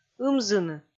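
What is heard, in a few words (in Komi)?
"ымзыны", Komi, female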